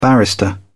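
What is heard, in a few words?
"barrister", British English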